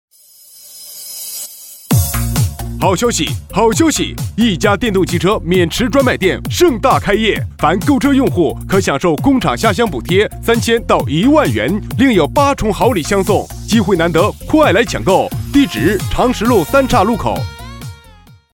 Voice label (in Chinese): 男 促销 电动汽车混音; 行业：家电卖场 分类：促销叫卖 风格：激情; 易咖电动汽车混音